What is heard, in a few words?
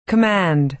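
"command", American English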